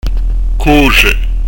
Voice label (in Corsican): "cosge", pronuncia; dizco grammatica